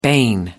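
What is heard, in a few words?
"bane", British English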